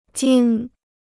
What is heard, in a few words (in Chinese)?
"惊", Chinese